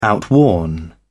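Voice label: "outworn", English, British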